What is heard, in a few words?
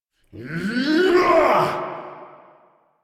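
Attack, English; Warframe; Captain Vor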